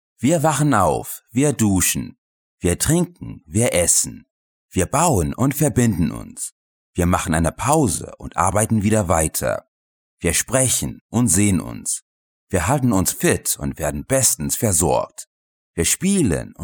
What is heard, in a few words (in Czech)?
německý dabing